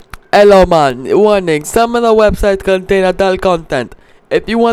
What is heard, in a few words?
jamaican warning